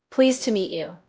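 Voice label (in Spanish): Voice Acting, es, female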